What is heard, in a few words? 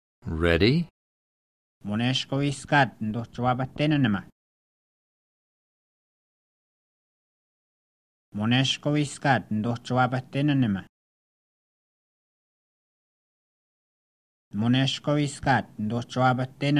Spoken Cree Pronunciation Drill; Spoken Cree Level 1 Unit 6 Section C